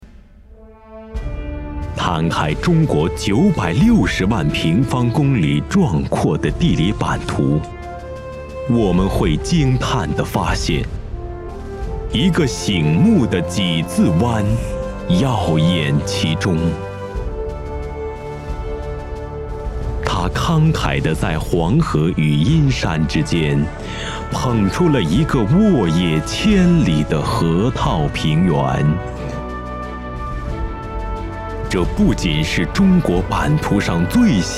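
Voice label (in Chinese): 专题浑厚大气宣传片; 黄河宣传片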